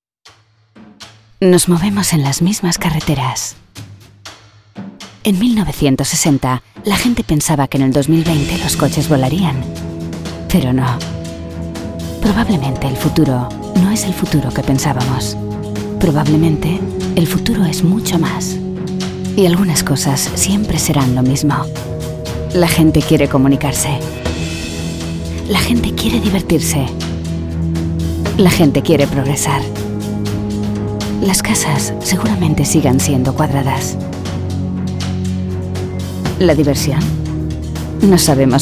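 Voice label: Spanish, female, corporate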